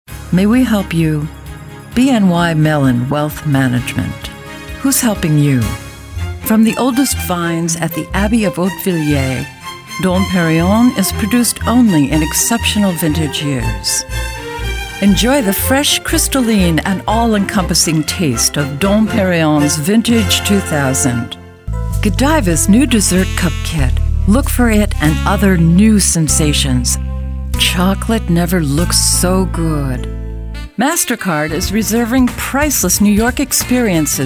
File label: 40s50s Female Commercial